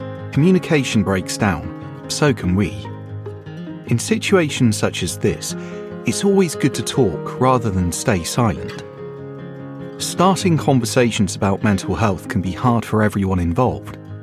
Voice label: eLearning